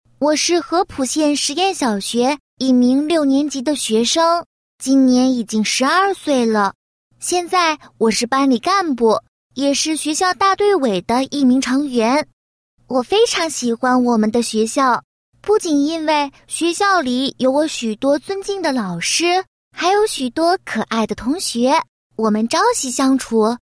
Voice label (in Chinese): 女, 自白; 我的小学故事